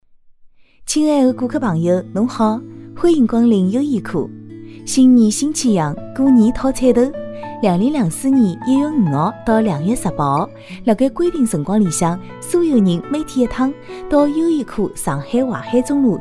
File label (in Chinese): 上海方言 女 上海话; 方言配音; 优衣库